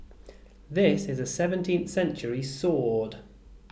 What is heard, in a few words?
"sword", English